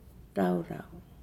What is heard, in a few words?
"serving basket laulau", Nukuoro